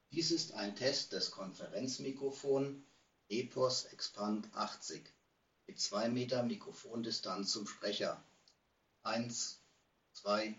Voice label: Mikrofon Test Sprachqualität, 2 Meter Abstand zum Sprecher; epos expand 80; Microsoft Team Empfehlung

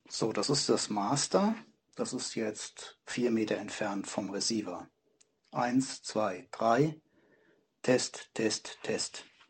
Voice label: test distanz 1m abstand receiver 4m, mikrofon test sprachqualitaet; wm02; 1 Meter Abstand zum Sprecher und 4 Meter Abstand zum Empfänger

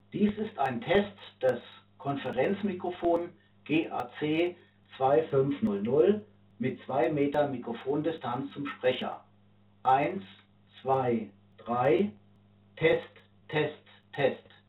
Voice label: Test 2m GAC2500, 2 Meter Abstand zum Sprecher; Grandstream GAC2500 über Bluetooth Verbindung getestet

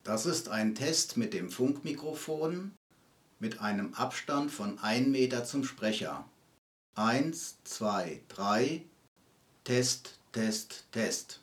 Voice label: mic pegel 90 prozent 1m am receiver, mikrofon test sprachqualitaet; Mikrofon auf dem Tisch mit 1m Abstand zum Sprecher

